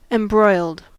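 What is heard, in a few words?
"embroiled", US English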